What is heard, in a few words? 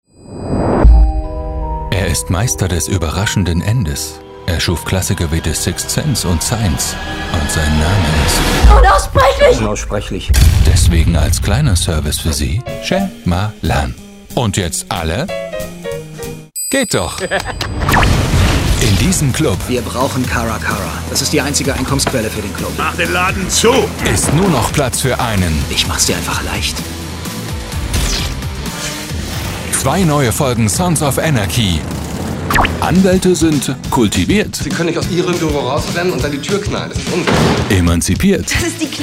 Stationvoice TV